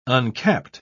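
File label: "uncapped", British English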